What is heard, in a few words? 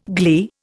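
"glen", Indian; Dictionary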